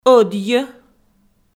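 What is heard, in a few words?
"ӧдйӧ", Komi-Zyrian, female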